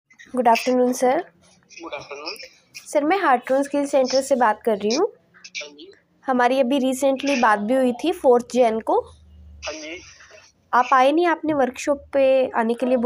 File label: call recording